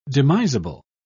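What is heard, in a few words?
"demisable", British English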